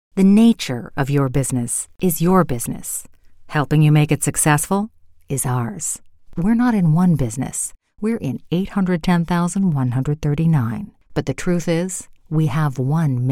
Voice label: Mission Confident